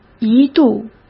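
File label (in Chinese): "一度", Mandarin Chinese; chinese-flashcards; hsk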